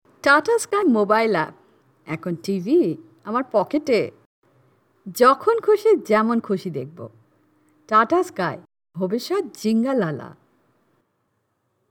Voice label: Bengali F Commercials